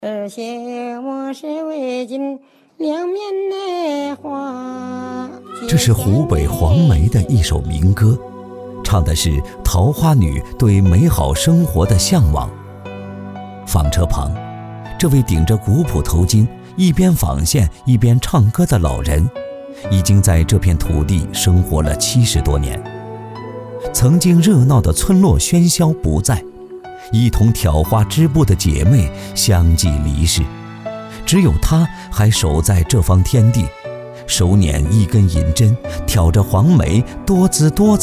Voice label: 纪录片叙述文化; 黄梅挑花

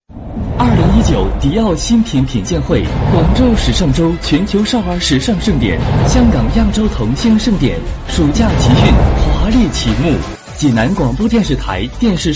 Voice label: 男电视广告配音; 科技产品小米透明电视

